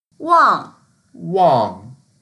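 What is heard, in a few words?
"wang4", Mandarin Chinese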